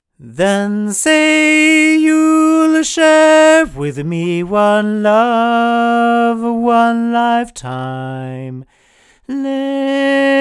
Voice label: MXL 603S male